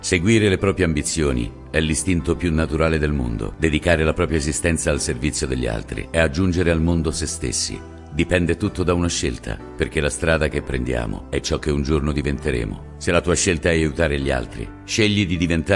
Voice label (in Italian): spot audio; Bando Concorso Allievi Ufficiali Carabinieri